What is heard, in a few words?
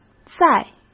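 "再", Mandarin Chinese; chinese flashcards; hsk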